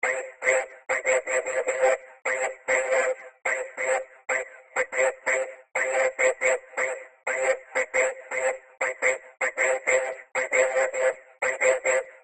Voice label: Ghost Voice

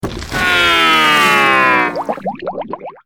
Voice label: Drowning Voice; Maxwell

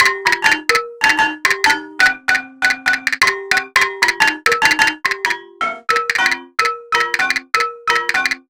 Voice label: Crabby Hermit Voice

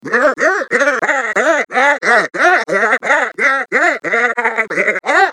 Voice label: Mumsy Voice; Gorge

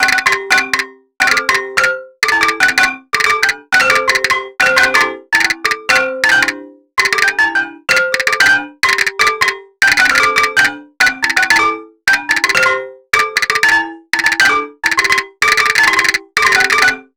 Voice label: Crabby Hermit Voice

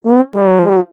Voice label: Sleepy Voice; Wolfgang

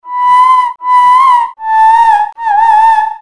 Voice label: Hurt Voice; Wendy

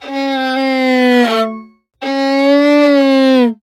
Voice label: Death Voice; Wortox

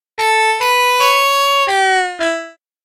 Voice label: Sinking Voice

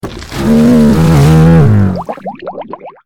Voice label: Werebeaver Drowning Voice

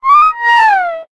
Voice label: Sleepy Voice

